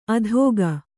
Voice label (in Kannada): "ಅಧೋಗ", Kannada